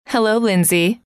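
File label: "hello", female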